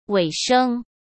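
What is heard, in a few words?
"尾声" Mandarin Chinese; chinese-flashcards; hsk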